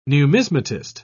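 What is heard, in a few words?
"numismatist", English, British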